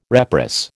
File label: "reprice", American